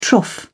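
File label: "trough", British English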